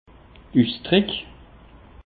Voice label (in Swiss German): "usdrick", alsace; peche